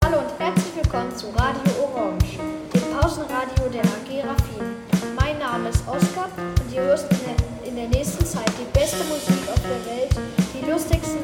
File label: jingle